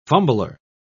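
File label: "fumbler", British English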